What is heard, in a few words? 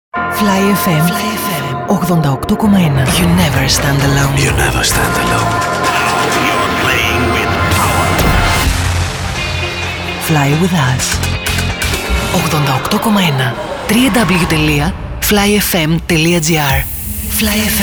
Sweepers; Spotcity; Fly Fm